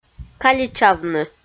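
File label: "каличавны" Komi, female; kpv audio dict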